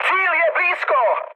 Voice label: near waypoint, speech cze, mood high; War Thunder